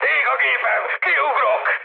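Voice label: on fire, mood med, Hungarian; War Thunder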